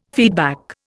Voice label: "feedback", Indian